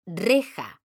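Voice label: "reja", Spanish, female; mekanta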